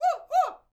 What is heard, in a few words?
MEXICO VOICE, MEXICAN; ILIO; Vocal Planet VOL-5